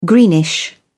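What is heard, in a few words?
"greenish", British English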